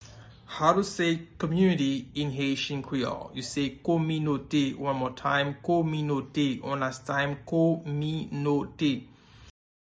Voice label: Community in Haitian Creole Kominote pronunciation